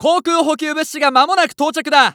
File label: Announce Air Supply Ready, JPN; Eternal Return